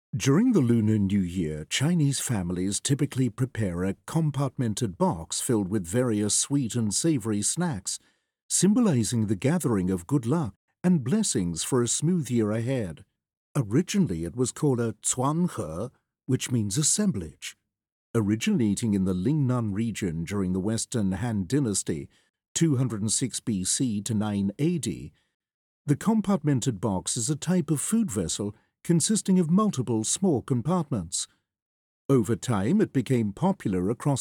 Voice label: ENG; audio guide